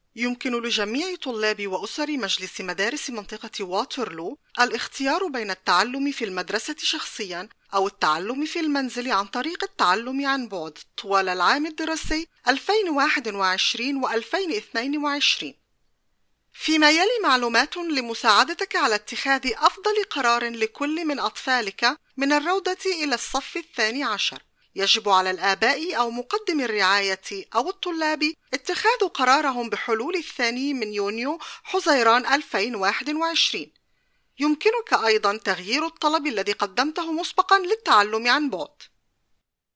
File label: Intro Arabic